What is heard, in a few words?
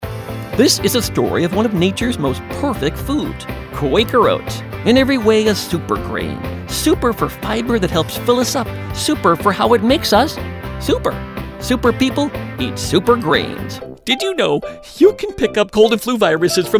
Commercial